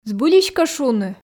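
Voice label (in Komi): збыльысь кӧ шуны, Komi, female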